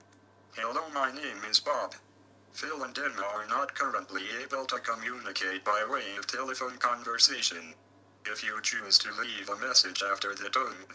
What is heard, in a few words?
new answer phone message on the home phone